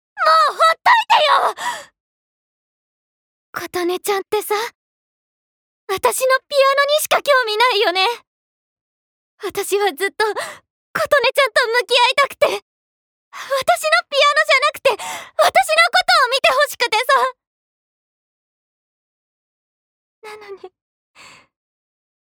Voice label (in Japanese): アニメ